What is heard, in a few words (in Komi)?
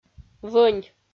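"вӧнь", Komi, female; audio dict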